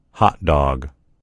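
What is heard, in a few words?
"hot dog", American English; gcompris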